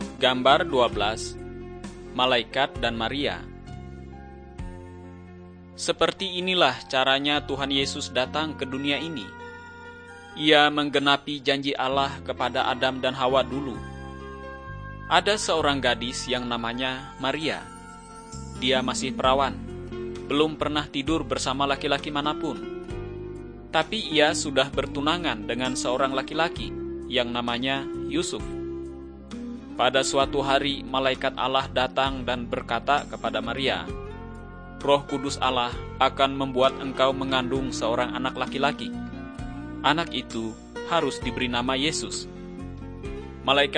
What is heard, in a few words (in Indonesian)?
kabar baik good news bahasa indonesia; malay